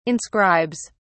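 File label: "inscribes", British English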